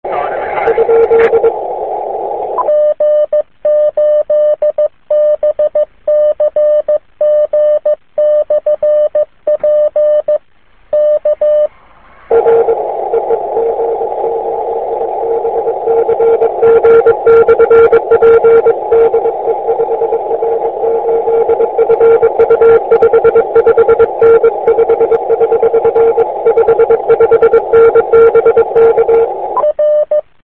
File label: qso